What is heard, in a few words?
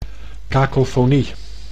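"kakofonie", Dutch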